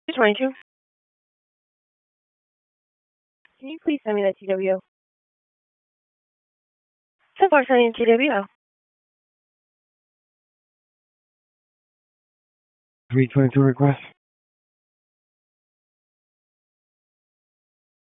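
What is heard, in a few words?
Police Northeast District Dispatch, Raleigh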